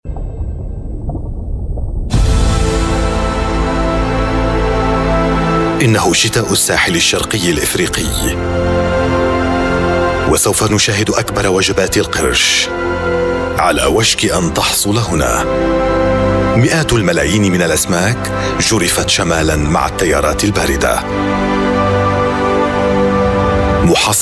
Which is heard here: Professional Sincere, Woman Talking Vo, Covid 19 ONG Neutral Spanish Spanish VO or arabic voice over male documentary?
arabic voice over male documentary